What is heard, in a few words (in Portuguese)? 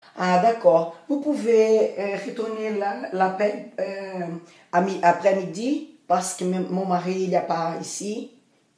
fala frances ao telefone; gretchen